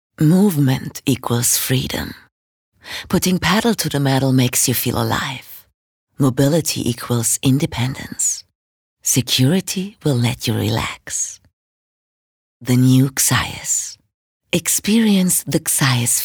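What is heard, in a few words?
British English, Sanft; Xios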